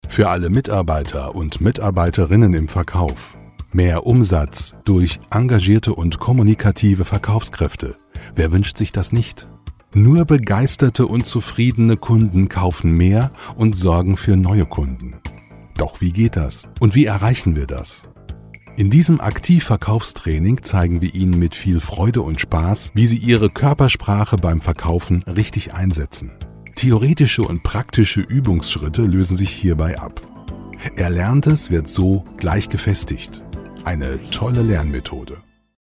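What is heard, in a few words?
German, male, corporate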